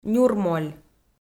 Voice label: "нюрмоль", Komi

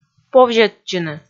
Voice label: "повзьӧдчыны", Komi

